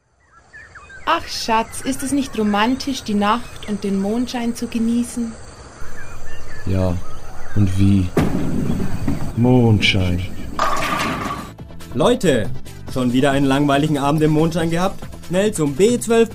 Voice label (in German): Werbung